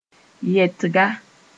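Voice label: "yɛtga", Mossi; verb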